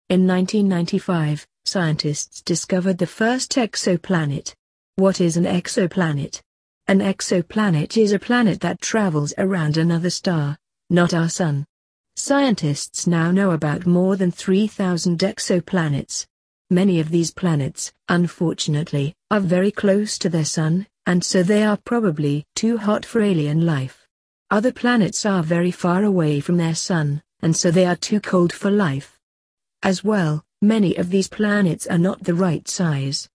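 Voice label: super earth, British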